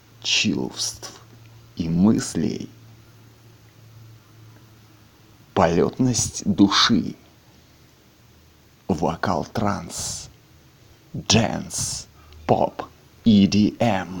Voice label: Vocal Live Radio Show